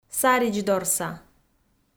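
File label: "саридздорса", Komi, female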